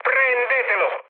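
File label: Italian attack position mood med, speech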